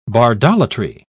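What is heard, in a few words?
"bardolatry", British English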